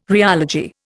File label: "rheology", Indian